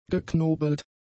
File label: "geknobelt", German; adjectives